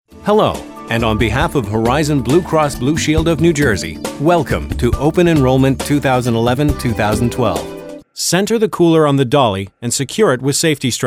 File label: E Learning VO